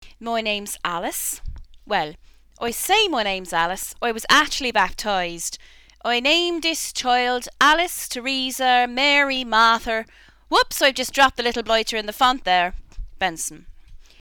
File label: Vocal Irish Granny